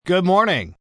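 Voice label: News Good Morning Male, Broadcast, announcer, greetings/wrap-ups, male; VoiceBox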